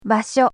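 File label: "basyo", Japanese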